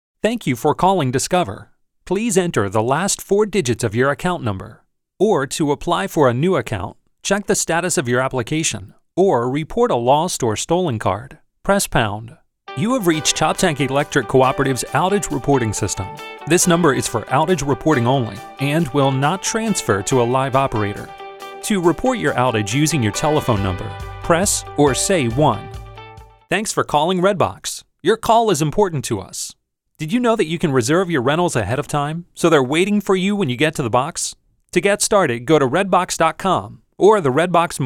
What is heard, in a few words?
on hold messaging IVR